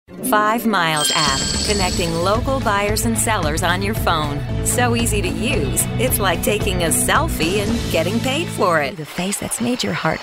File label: commercial